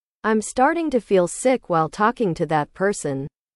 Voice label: english